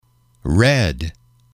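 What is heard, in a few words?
"red", English, male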